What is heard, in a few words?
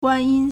"guan1yin1", Chinese